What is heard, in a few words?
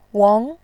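"wang", Mandarin Chinese, tone 2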